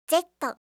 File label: "z", alphabet